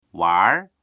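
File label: "wanr2", Mandarin Chinese; 玩儿; 遊ぶ、（ゲームなどを）する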